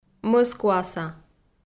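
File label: "мӧскуаса", Komi, female; kpv; audio dict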